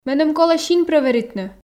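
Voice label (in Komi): меным колӧ син прӧверитны, Komi, female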